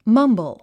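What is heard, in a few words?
"mumble", English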